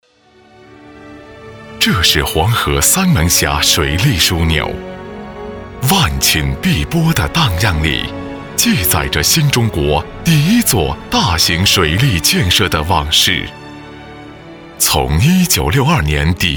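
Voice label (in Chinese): 男国大音-政府宣传片; 黄河三门峡水利枢纽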